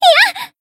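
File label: Voice damaged, Japanese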